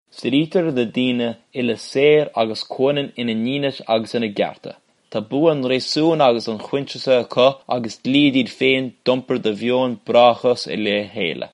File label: irish